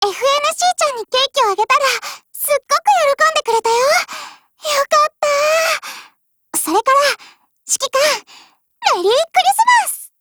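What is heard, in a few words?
CHRISTMAS Japanese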